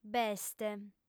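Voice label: "b'est", female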